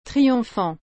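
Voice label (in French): "triomphant", French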